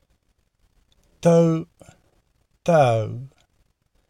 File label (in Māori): Tau Tau, te reo maori